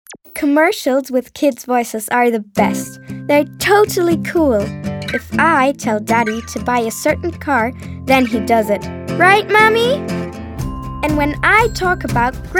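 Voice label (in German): Werbung, English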